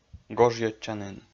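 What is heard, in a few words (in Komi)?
"гожйӧдчанін", Komi-Zyrian, male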